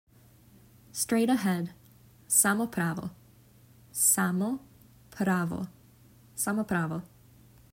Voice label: Straight ahead, Serbian talking phrasebook